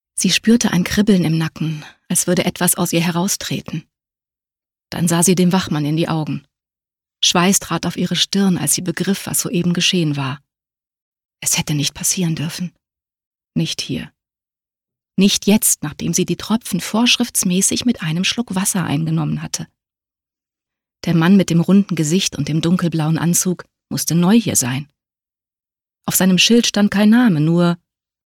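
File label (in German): Hörbuch; der hund in saal 6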